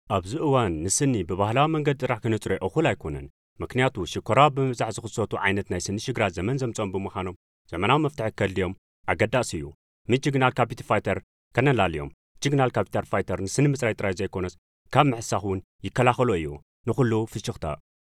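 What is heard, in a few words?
Tigrinya, male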